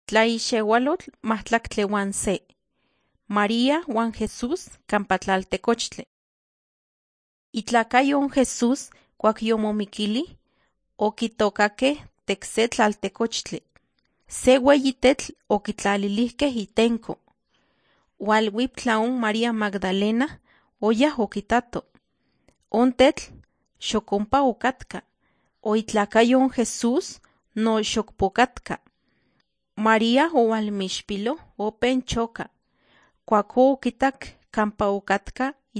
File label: nahuatl guerrero tlapa; the bible league nt drama